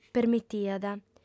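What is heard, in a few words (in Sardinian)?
"permitiat", female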